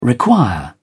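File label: "require", British English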